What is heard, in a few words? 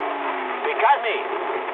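English aircraft destroyed mood med; War Thunder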